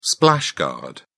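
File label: "splash-guard", British English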